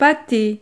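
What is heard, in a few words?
"pate", French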